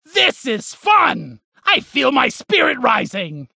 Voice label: Halloween Slap Ghost Spacerig